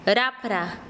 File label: "ala", Quechua Chanka